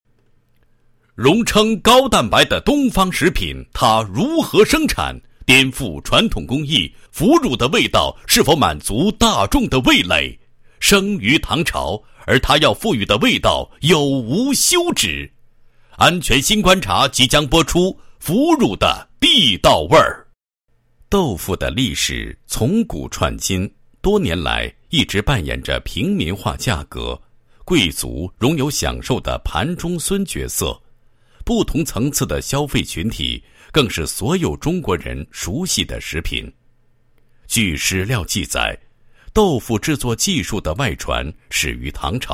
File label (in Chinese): 男-电视栏目解说词-腐乳的地道味 腐乳的发展解说, 配音案例